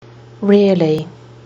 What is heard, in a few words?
"rarely", Kiwi English